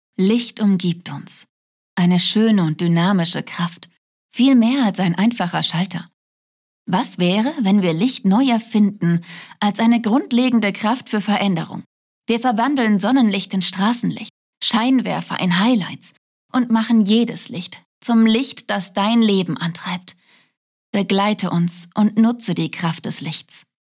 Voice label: German VO, Werbung Energie, Commercial; Ledvance

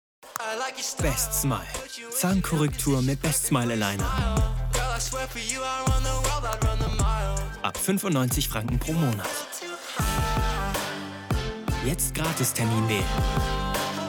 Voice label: COMMERCIAL OFF; Bestsmile TVC; LOFT VOICES

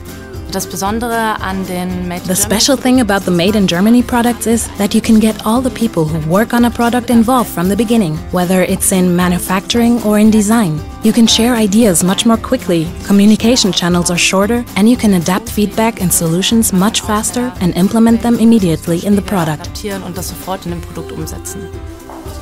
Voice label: ENG OVERLAY; Vaude

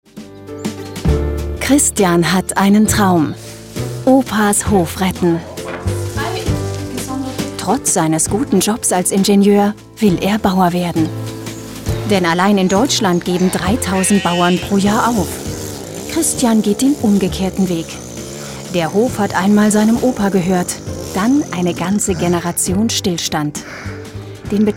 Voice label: German Documentary Narrative short

